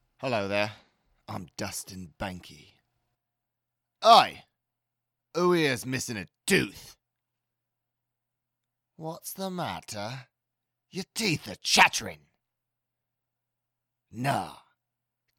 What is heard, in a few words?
voiceover; ToothFairy; Villain (British)